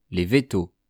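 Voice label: "les veto", French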